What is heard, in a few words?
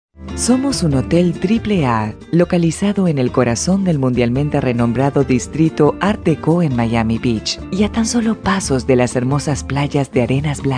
female, female voice artists